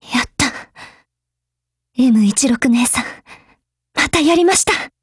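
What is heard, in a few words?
WIN, Japanese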